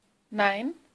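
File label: "nein", German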